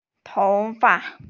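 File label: "tou2fa5", Mandarin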